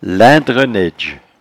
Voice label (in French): "laindrenaidge", patois jura